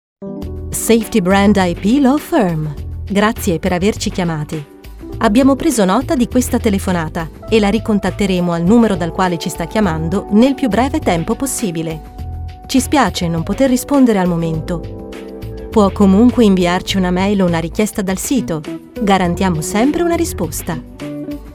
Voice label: On Hold; English (Australian)

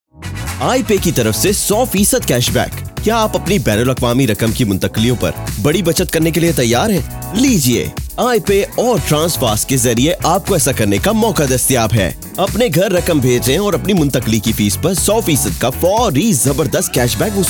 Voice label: Commercial Urdu Language

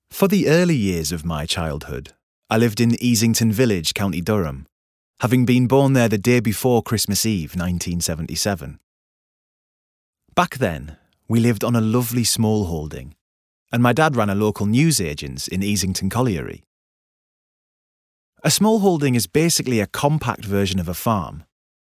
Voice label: Audiobook Memoir Northen Accent

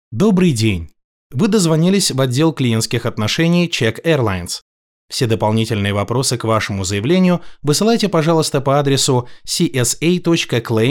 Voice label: ivr airlines

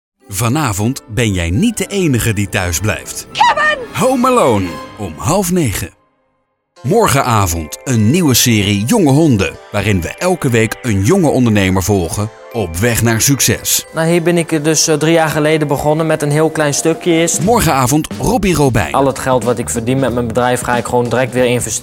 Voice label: Stationvoice tv

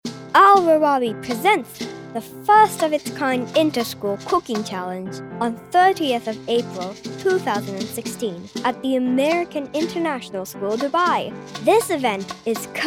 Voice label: Commercial Voices

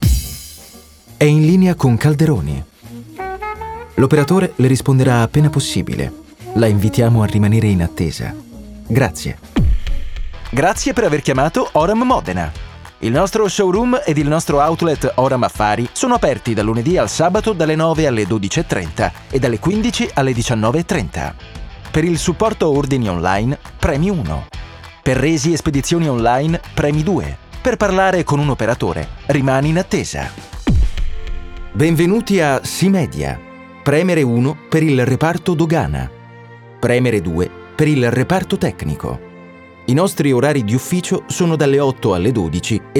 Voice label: IVR; English (North American)

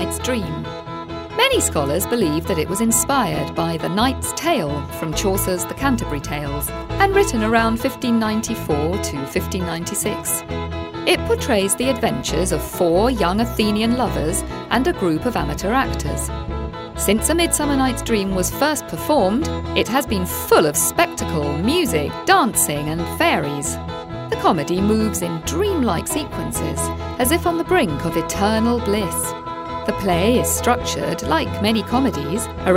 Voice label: Podcast

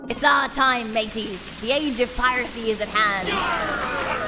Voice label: Character Pirate

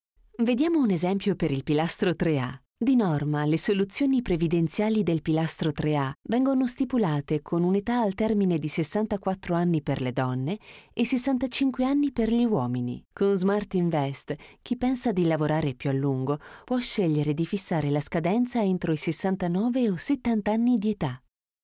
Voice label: e-learning

